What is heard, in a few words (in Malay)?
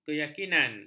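"keyakinan", Malay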